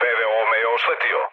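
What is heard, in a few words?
Serbian damaged aa mood low, speech; War Thunder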